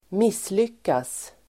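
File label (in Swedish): "misslyckas", Swedish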